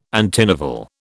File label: "antinovel", British